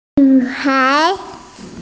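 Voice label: "nv3hai2", female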